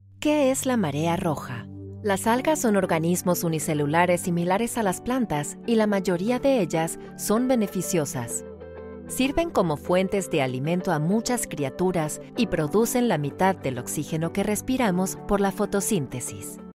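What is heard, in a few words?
VO Explainer Español Latam; Marea Roja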